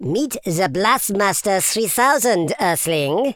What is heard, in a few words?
"collect", Super Villain speech